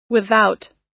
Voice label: "without", us